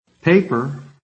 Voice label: "PAPER", american; PHONETIC